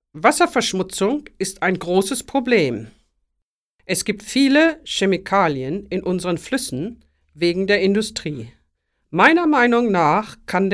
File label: german environment